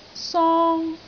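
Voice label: "song1", Cantonese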